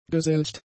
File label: "geselcht", German; adjectives